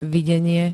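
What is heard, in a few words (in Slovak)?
"videnie", slovenské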